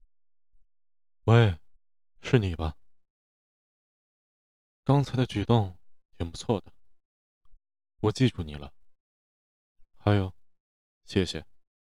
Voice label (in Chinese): 冷酷